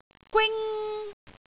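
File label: "gwing1", Cantonese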